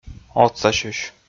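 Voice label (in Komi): "отсасьысь", Komi, male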